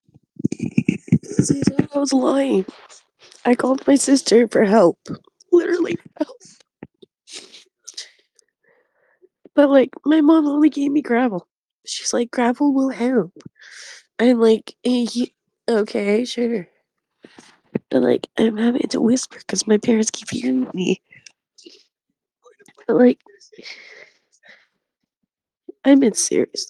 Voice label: voice message